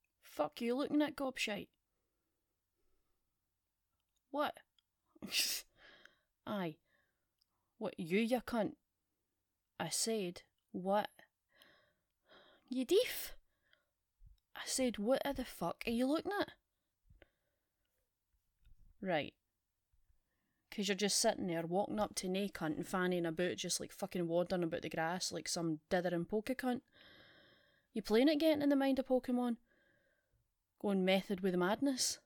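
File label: [F4M] [Scottish Accent][Swearing; Scottish Trainer; Pokemon; 'Wee Boy' Mentions; Age; Swearing up to the C-word; Pokeballs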